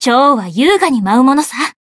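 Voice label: Voice variable chant, Japanese; isuka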